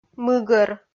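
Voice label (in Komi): "мыгӧр", Komi, female; kpv audio dict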